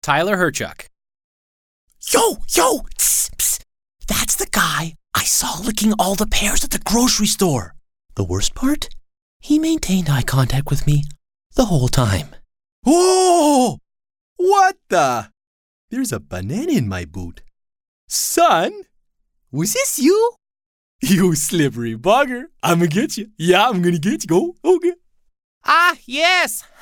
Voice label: Animation